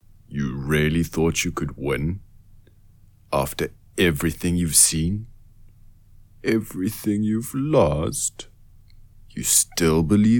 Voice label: Character Dark Villian